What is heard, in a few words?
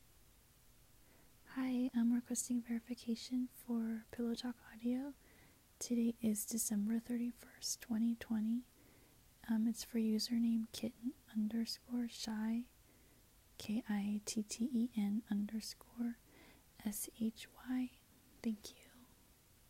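Verification, female; Pillowtalk Audio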